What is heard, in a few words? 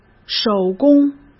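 "手工", Mandarin Chinese; hsk; chinese-flashcards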